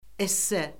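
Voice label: "esse", Azerbaijani; Orfoqrafiya Lüğəti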